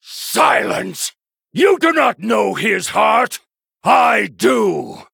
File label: Male Human